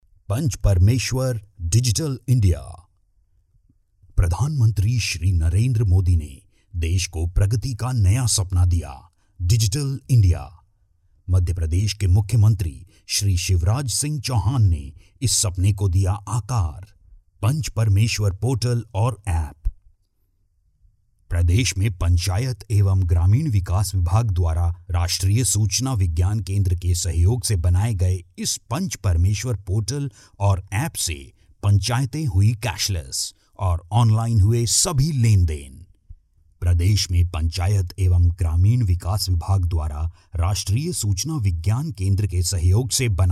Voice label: Hindi Corporate

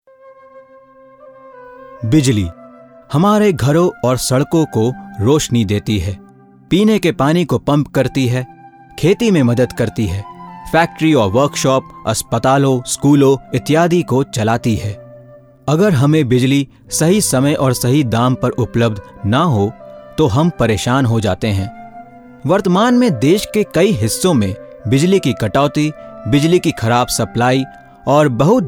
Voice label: Explainer Hindi

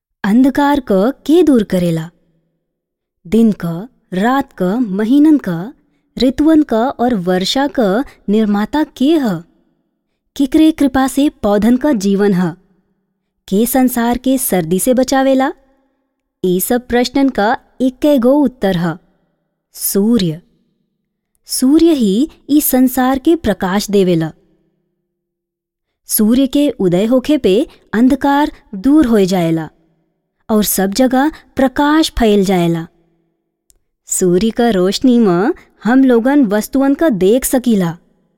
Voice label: narration Bhojpuri

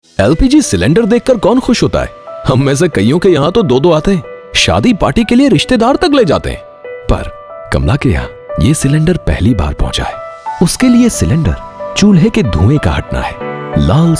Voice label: Male

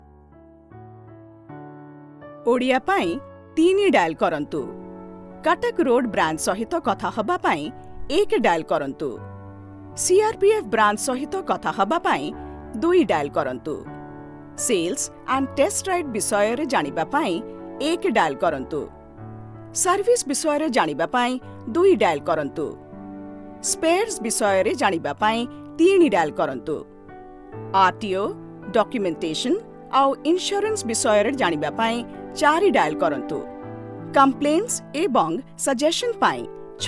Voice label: IVR ORIYA

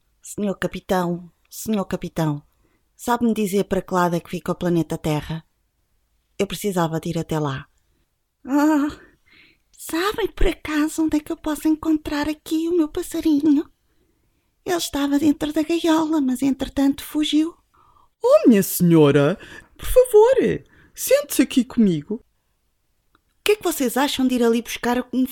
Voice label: animation portugese

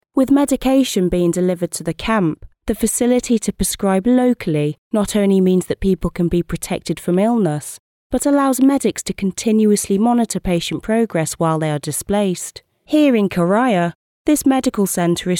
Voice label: narration

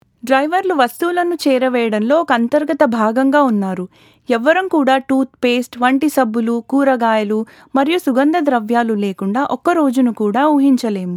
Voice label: Narration Telugu

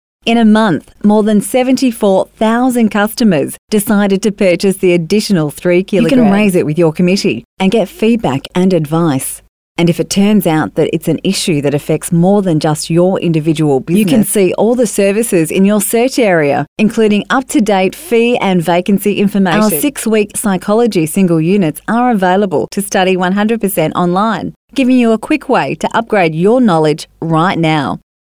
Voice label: Corporate

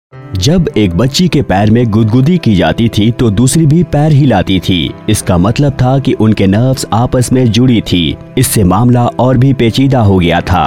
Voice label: narration urdu

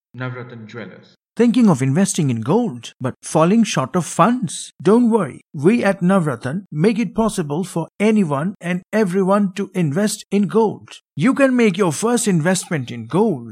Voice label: English Corporate Mascot; Navrathan; GAIL

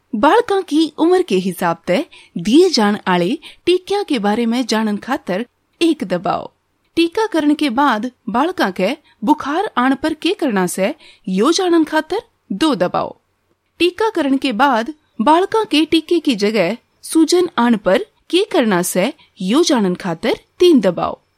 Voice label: Haryanvi

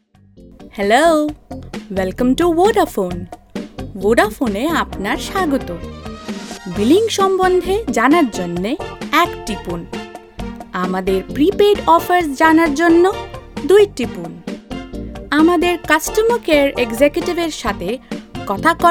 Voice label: IVR BENGALI

